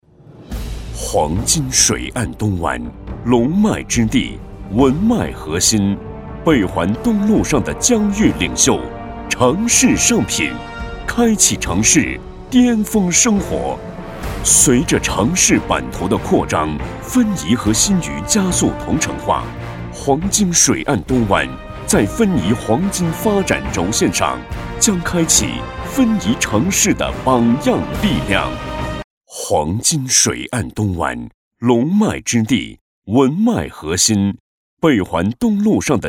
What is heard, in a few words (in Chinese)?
男-专题; 黄金水岸东湾